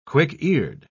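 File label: "quick-eared", British English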